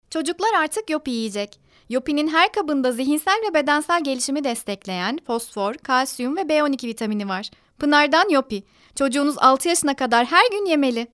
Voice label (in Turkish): reklam